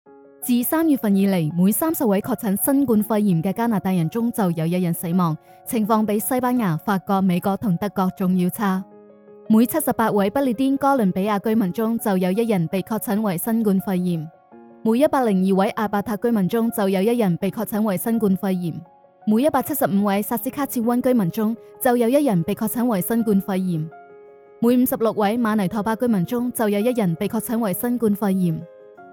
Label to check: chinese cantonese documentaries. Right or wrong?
Right